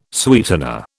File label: "sweetener", British